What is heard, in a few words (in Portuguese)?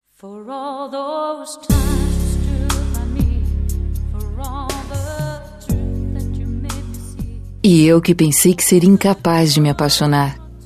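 Namorados Voce E Meu Amor, female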